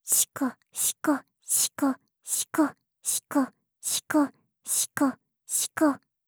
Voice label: シコシコオンリー ふつう

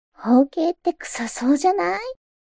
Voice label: mob 女子 台詞

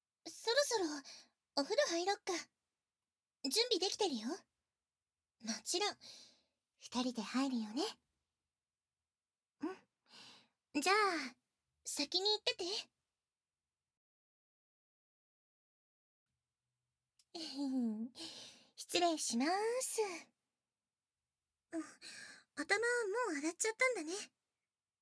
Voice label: エコー無し

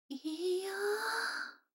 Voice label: 女の子台詞, ASMR

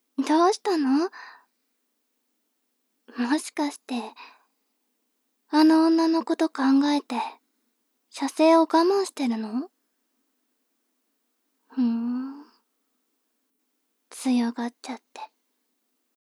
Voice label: 妹 台詞

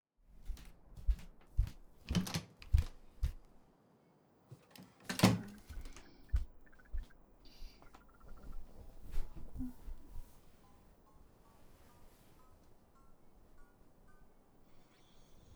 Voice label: 添い寝, ASMR; まったり添い寝で夢の中へ♪

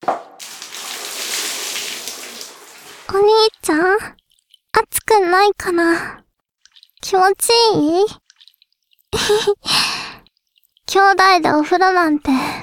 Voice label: 反響音カット版, ASMR

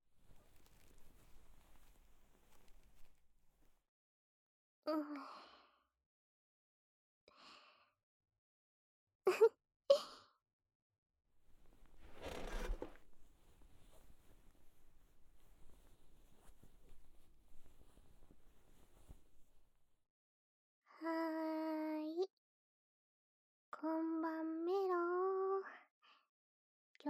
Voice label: すやすやでワロタ添い寝ASMR

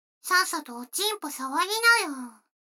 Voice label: 女の子台詞, ASMR

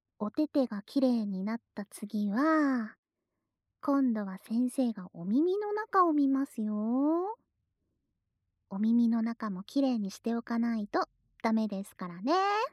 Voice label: お耳もチェックしますよぉ, ASMR

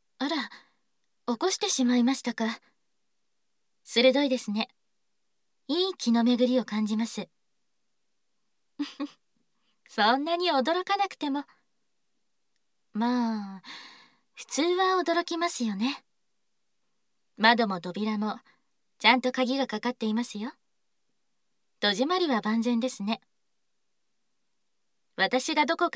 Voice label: 出会い バイノーラル

